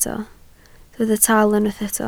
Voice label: "tal", Welsh

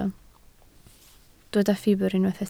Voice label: "ffibr", Welsh

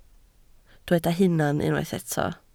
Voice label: "hunan", Welsh

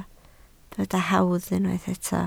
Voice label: "hawdd", Welsh

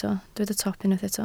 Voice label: "top", welsh

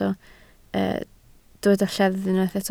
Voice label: "lleddf", Welsh

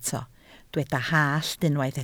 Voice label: "hallt", Welsh

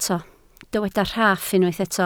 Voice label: "rhaff", Welsh

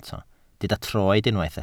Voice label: "troed", Welsh

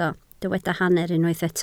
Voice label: "hanner", Welsh

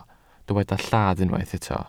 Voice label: "lladd", welsh

